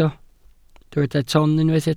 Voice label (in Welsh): "ton", Welsh